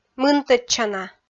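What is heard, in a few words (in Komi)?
"мынтӧдчана", Komi, female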